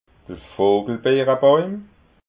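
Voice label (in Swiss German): "vogelbeerabàuim", Alsatian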